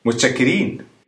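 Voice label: thanks, Egyptian Arabic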